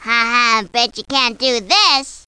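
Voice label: "Betcha", spoken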